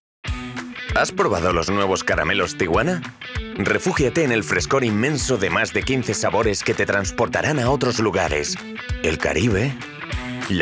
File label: EU Male Spanish Voice Commercial; Caramelos Tiguana